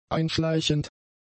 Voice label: "einschleichend", German; adjectives

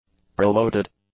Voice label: "preloaded", english; adjectives

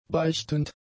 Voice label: "beichtend", German; adjectives

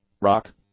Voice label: "rock", English; nouns

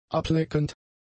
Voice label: "ableckend", German; adjectives

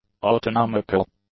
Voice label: "autonomical", English; adjectives

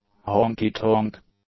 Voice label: "honky-tonk", English; nouns

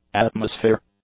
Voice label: "atmosphere", English; nouns

